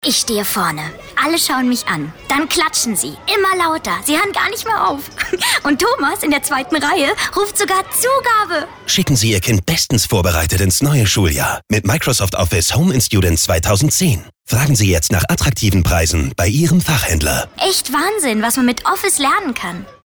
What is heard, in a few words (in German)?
Werbung Dynamisch